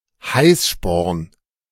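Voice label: "Heißsporn", German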